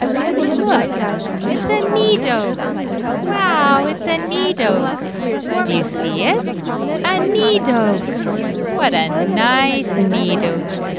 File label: noise 0dB SNR